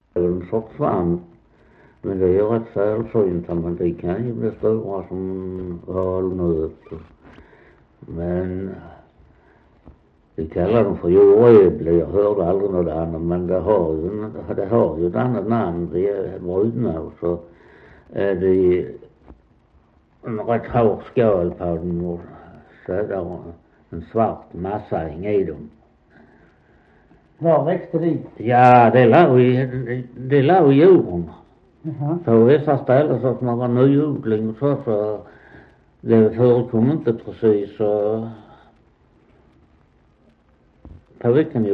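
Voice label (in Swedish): Västra Torup Skåne, Svenska sagor, dialekter; Folkminnen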